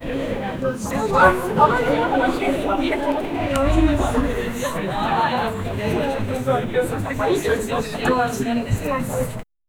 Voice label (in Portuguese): pessoas a falar umas com, dialogue